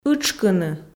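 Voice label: "ытшкыны", Komi, female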